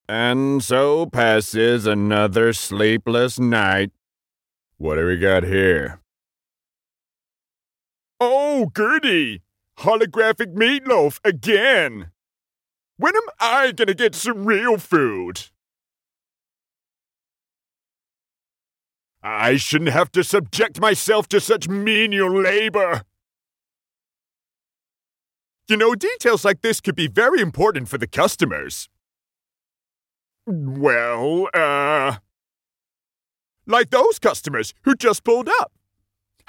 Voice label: london male character